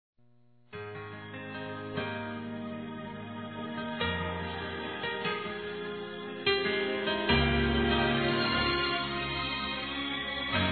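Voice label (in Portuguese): Romântico Evangelico Masculino; Aniversários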